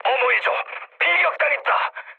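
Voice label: Korean aircraft damaged mood medium; speech; War Thunder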